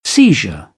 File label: "seizure", British English; ɚ - əɹ